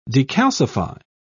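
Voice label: "decalcify", English, British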